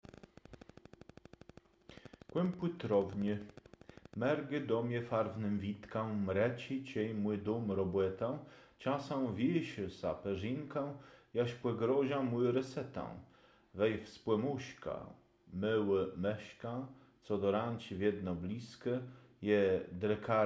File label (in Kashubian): Komputrownio wymowa